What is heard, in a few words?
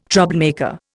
"troublemaker", Indian